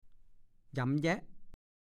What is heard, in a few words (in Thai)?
"หยำแหย๊ะ", ภาษาโคราช